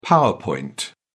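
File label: "power point", English, British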